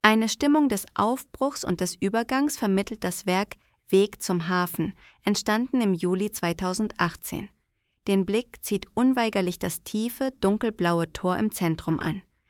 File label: voice audio guide; weg zum hafen